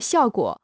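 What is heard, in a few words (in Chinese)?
"效果" Chinese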